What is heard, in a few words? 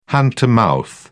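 "hand-to-mouth", British English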